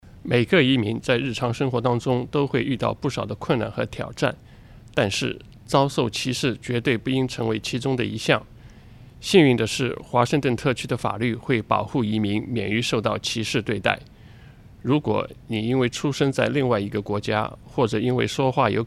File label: Chinese